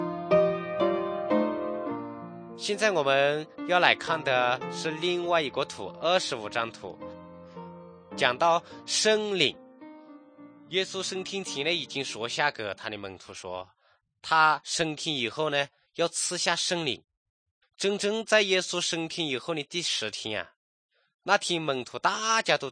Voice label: good news shan, drama